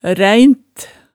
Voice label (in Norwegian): "ræint", Hemsedal